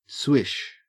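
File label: "swish", Australian English; pronunciation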